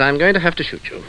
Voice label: i'm going to have to shoot, cartoon sfx